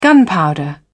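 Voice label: "gunpowder", British English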